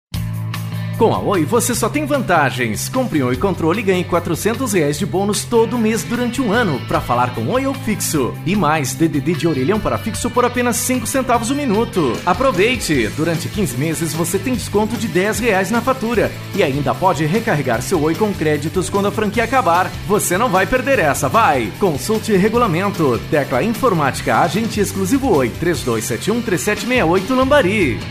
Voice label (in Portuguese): Locutor, Padrão, Jovem, Varejo, Institucional